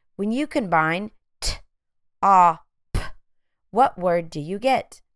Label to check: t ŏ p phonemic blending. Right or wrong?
Right